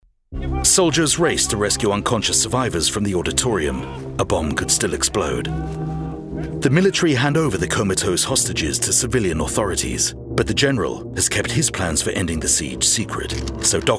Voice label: Narrative

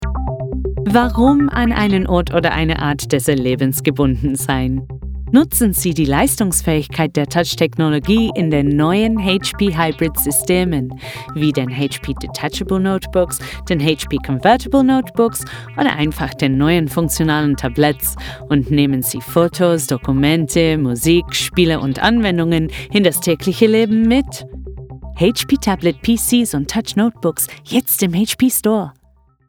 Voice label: corporate

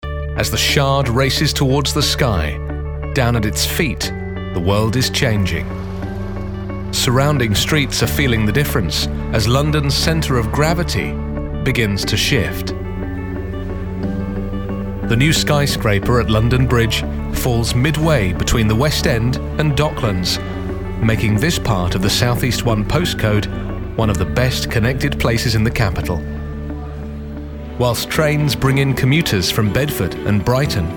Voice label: narrative

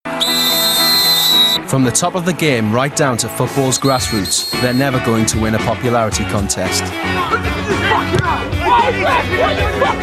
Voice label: documentary reel

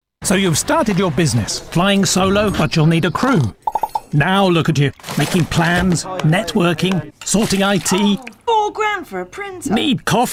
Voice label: commercials

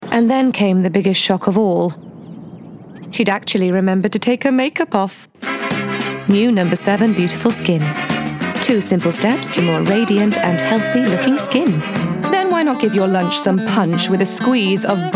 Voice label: english accent commercial reel

